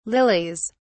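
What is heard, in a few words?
"lilies", British English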